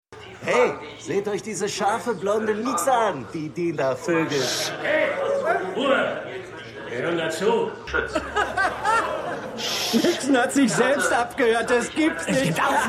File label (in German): Afro Insasse, Ruhe Insasse, Lachender Insasse, Alter Insasse; White House Plumbers